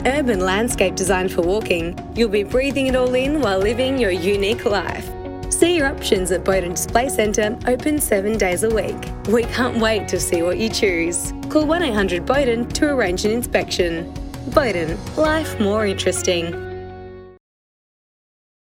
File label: Voice with Music Bed